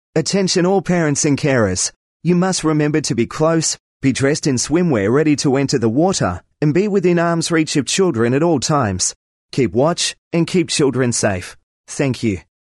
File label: Male Adult Remember to be close, public pool; keep watch; public pool partners queensland